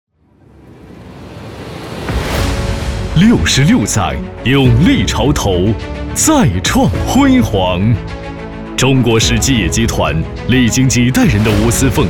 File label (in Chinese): 男, 宣传片, 激昂大气